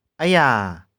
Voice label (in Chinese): "eh4ia2", 玉環